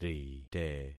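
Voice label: "rite", concatenated syllables; Affixing experiment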